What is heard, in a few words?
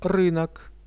"rynok", Russian; рынок